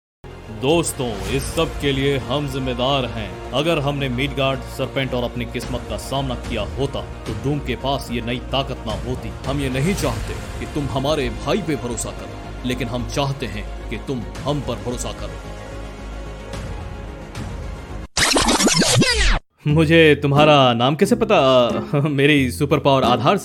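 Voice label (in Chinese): 印地语男_外语_小语种_样音_浑厚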